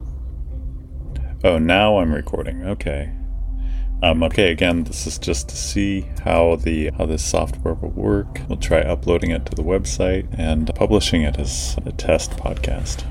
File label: Test Podcast Recording Test